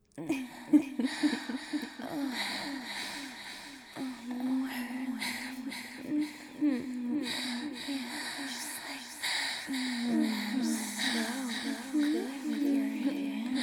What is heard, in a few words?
F4M; Tech support dream